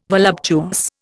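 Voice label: "voluptuous", Indian English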